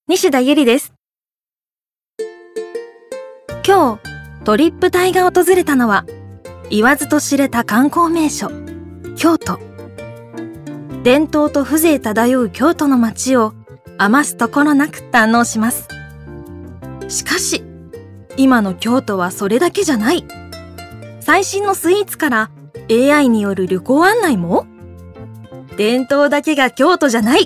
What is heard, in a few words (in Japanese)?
ナチュラル